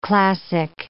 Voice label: "classic", English